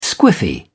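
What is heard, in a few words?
"squiffy", British English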